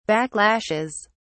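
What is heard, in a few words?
"backlashes", British English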